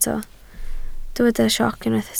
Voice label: "sioc", Welsh

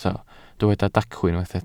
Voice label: "dacw", Welsh

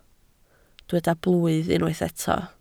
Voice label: "blwydd", Welsh

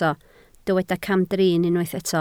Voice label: "camdrin", Welsh

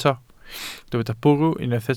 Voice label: "bwrw", Welsh

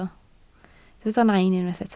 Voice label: "nain", Welsh

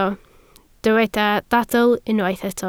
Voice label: "dadl", Welsh

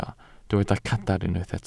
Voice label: "cadarn", Welsh

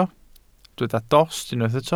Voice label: "tost", Welsh

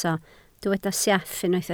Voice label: "sieff", Welsh

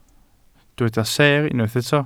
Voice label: "seer", Welsh

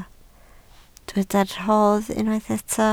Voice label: "rhodd", Welsh

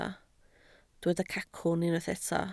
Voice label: "cacwn", Welsh

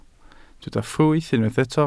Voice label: "ffrwyth", Welsh

